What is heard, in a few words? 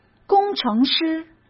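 "工程师", Mandarin Chinese; chinese-flashcards; hsk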